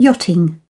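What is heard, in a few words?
"x ray", British English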